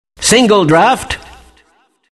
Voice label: announcer type single draft; Dota 2; kleiner announcer

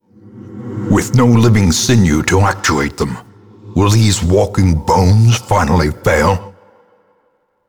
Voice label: Narration; Darkest Dungeon; boss kill ruins

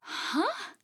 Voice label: Confused; Skye

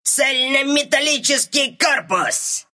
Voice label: level, ru

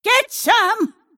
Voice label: attack pain; snapfire

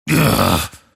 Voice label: anger; Grimstroke

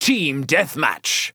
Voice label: Announcer Mode Team Deathmatch; First Mate

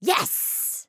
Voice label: Emote Yes; Seris; Paladins

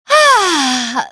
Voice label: wind happy

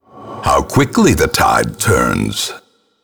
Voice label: Narration crit; Darkest Dungeon

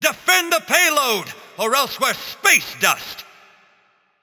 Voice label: Announcer Match Role Defend; MechaCommander; paladins

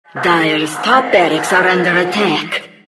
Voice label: announcer rax attack dire top; Diretide 2012

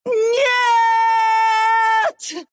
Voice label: lose, ru

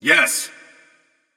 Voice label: Emote Yes; Cutting Edge Ullr

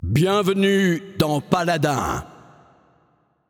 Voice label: Announcer French Global Welcome; paladins

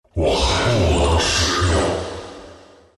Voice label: not yet zh; Dota 2

